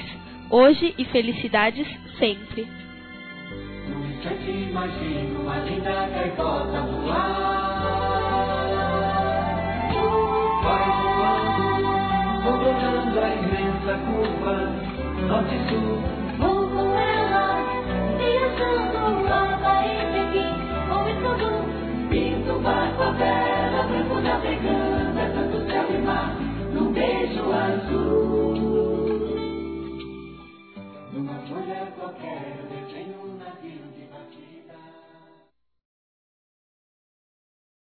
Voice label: ANIV. NEUTRO F; Aniversários; Aniversários diversos; fem

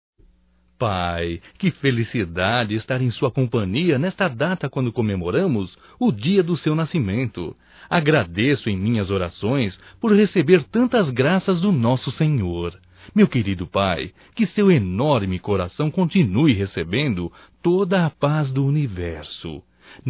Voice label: Aniversário Pai Evangélico Masculino; Aniversarios; Telemensagens 2017

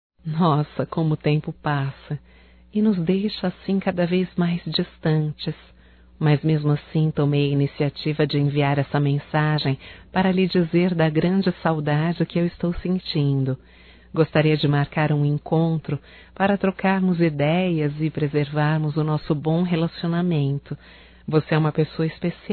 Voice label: SAUDADES ROMANTICA, Telemensagens, Só Voz, fem

